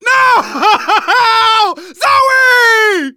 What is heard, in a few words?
grief teen girl, manager, survivor, voice; Left 4 Dead 2; left4dead2 dlc2